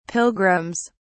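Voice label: "pilgrims", British English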